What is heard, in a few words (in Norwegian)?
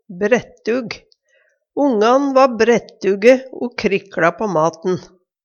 brettug, Numedalsmål; Adjektiv